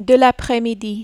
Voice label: "de l apres midi", French